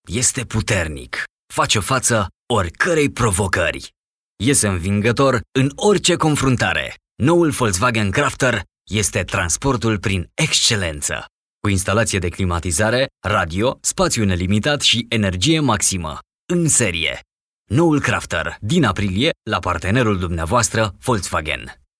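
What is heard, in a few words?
Romanian commercial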